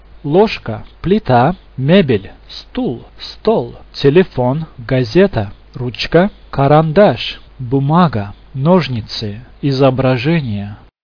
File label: russian objects